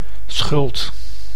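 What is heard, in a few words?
"schuld", Dutch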